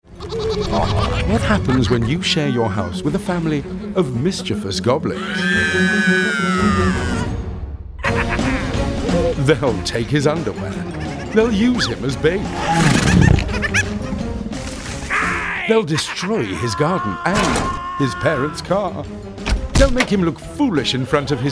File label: male british english movie comercial; ideasonora